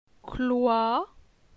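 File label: "Cloi", French; phonetique; la syllabe oi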